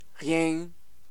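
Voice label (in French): "rien", French, Avignon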